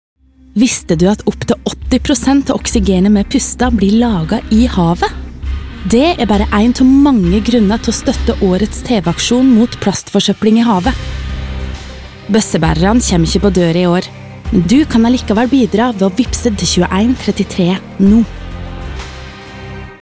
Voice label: Radiospot; Oksygen